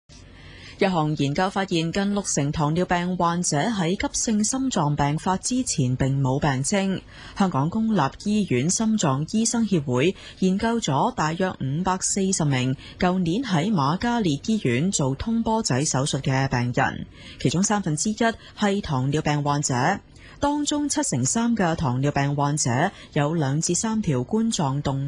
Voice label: Radio